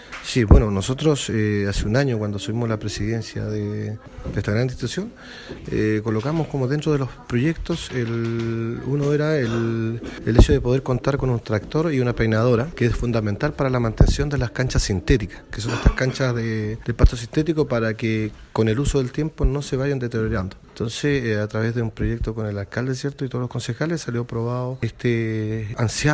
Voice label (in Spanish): CUÑA; TRACTOR SENIOR